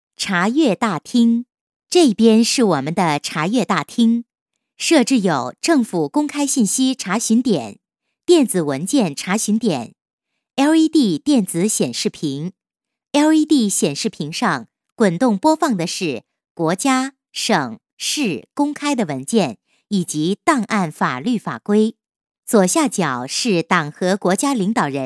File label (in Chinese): 女 课件 查阅大厅, 国语女声配音; 省台女配